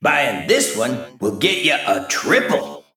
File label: Male Mech Triple